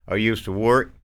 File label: STORY, SPOKEN; Spectrasonics; Vocal Planet; Blues Soul